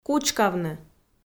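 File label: "кучкавны" Komi, female; kpv audio dict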